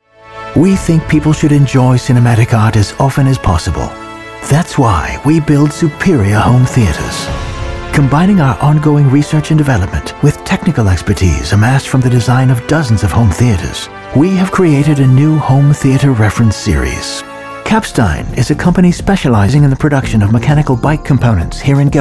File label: Neutral English Mid-Atlantic Corporate Voice